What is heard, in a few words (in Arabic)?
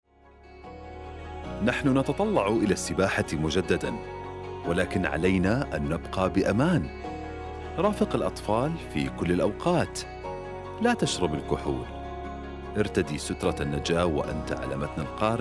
Life Saving ARABIC; Water Safety Community Announcement